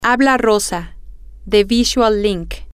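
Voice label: Spanish, this is X from Y, female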